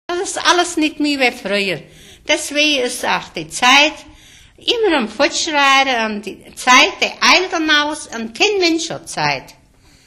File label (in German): Rheinfraenkisch; Mitteldeutsch